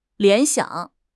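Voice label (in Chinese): "联想", Chinese; HSK6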